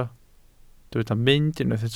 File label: "mynd", Welsh